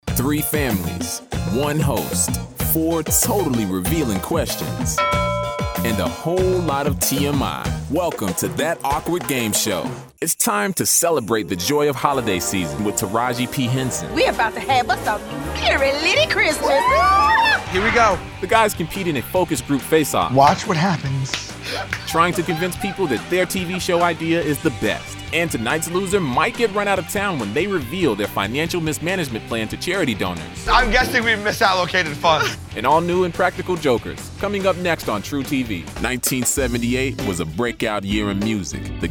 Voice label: promo